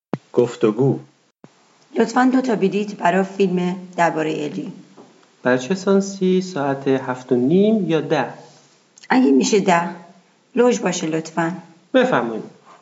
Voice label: Dialog, persian